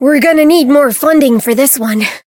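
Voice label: encounter fear; ashuthia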